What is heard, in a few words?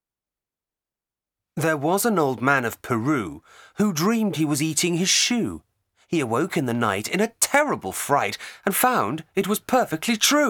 Pronunciation Limericks